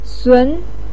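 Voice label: "sun", Mandarin Chinese syllable, second tone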